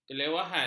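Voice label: "kelewahan", Malay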